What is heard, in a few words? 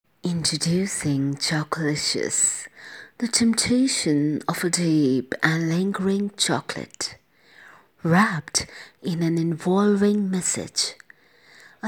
chocolate Commercial